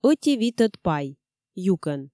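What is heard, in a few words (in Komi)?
ӧти витӧд пай юкӧн, Komi, female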